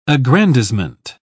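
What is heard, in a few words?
"aggrandisement", British English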